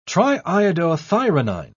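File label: "triiodothyronine", British English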